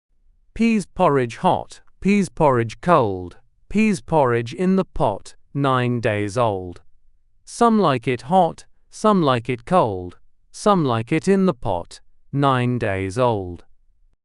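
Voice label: male British, classic rhymes; Pease Porridge Hot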